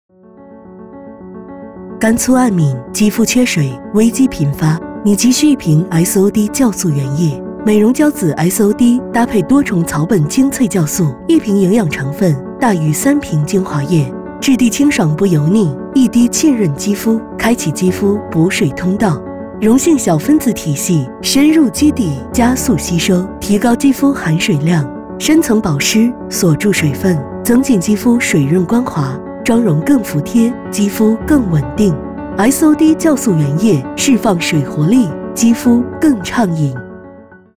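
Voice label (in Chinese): 品牌广告低沉质感时尚高级; 品牌广告金钟铠乐低沉质感时尚高级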